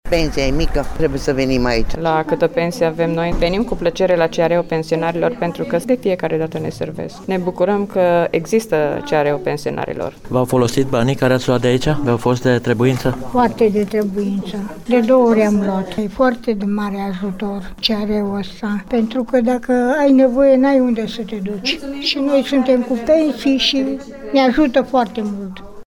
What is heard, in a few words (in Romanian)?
Vox pensionari; CARP